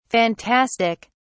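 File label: "phantastic", English, British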